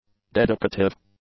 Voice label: "dedicative", english; adjectives

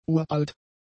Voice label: "uralt", German; adjectives

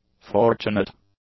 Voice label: "fortunate", English; adjectives

